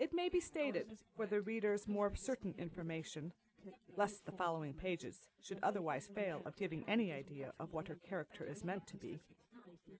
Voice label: female4 inst sim; SiSEC08; SiSEC underdetermined